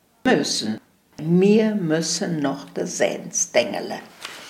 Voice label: "möhsen" im Satz; heimatfreunde weibern

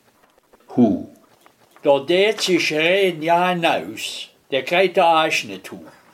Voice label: hu mit Satz

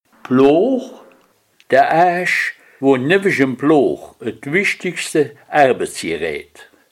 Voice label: "Blooch" mit Satz

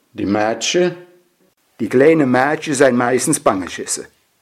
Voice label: "Mädche" mit Satz; heimatfreunde weibern

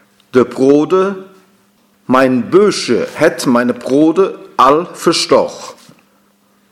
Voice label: "Brode" im Satz

